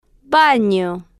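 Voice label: "bano", spanish, slow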